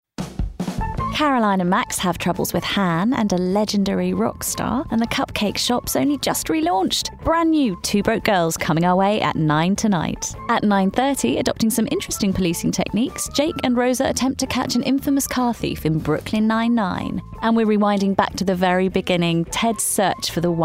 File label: continuity; E4